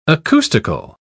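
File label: "acoustical", British English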